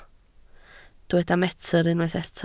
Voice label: "metr", Welsh